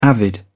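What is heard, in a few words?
"avid", British English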